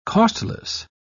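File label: "costless", British English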